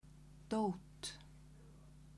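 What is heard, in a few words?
"dot", Icelandic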